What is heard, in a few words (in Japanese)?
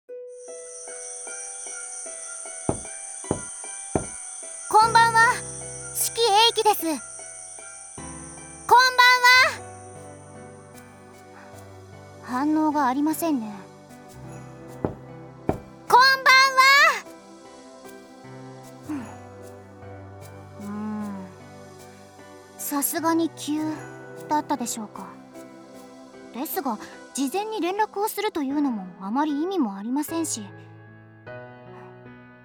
DOKIDOKI添い寝ボイス